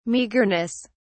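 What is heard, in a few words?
"meagreness", British English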